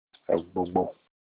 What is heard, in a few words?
"egbogbo", Olukumi, club stick